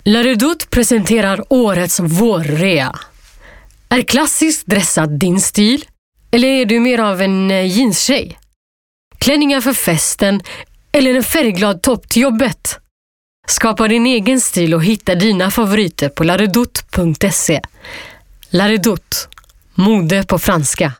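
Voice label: Swedish female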